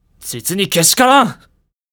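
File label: male; 実にけしからん！; パワフル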